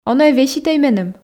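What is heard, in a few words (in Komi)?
он-ӧ веситӧй меным, Komi, female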